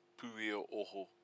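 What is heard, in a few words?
"puia oho", Māori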